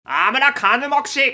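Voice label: male; 原住民の言葉A; パワフル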